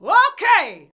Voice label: "O.K.", SHOUTS; Best Service; Hyper Dance